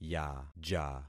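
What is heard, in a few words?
"yaja", concatenated syllables; Affixing experiment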